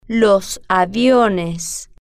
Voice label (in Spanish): "los aviones", Spanish